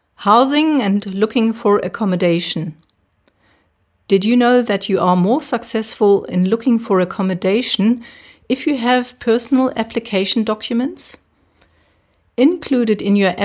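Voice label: English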